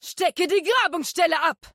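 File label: German; 探奇（守望先锋2）/终极技能; 德语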